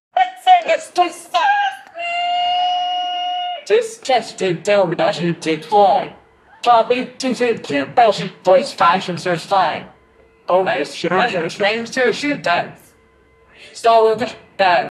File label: a public it company meeti, awkward